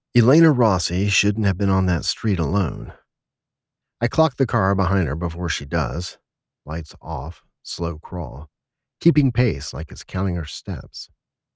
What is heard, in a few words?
Romance, Contemporary, Male and Female Voices